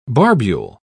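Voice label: "barbule", British English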